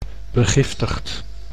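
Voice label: "begiftigd", Dutch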